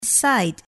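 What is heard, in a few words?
"side", English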